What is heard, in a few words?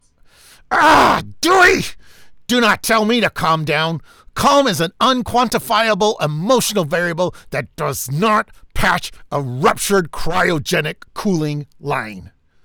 Angry Guy